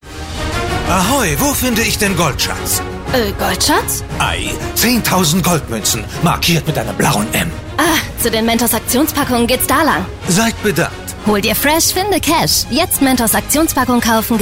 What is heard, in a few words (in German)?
Spots, maenner; Mentos